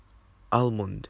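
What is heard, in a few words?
"almond", Japanese, male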